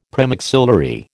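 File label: "premaxillary", American English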